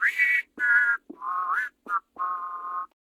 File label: Patrol, Male